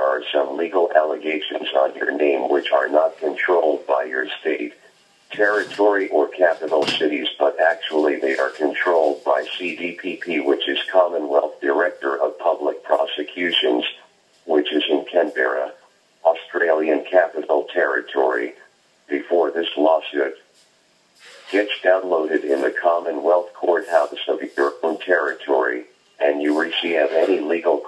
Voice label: Police scam phone call